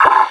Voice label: pain, combine soldier